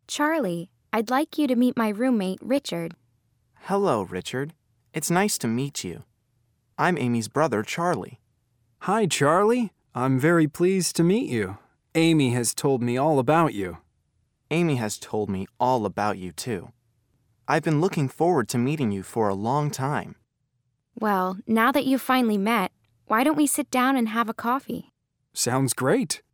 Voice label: Introducing Yourself Dialogue Reading